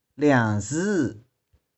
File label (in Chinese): "liang2zy6", 玉環